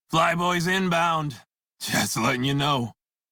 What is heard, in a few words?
Crew Gun Spot Fighter Male en; Dialog; Warframe; Railjack Crew; Red Veil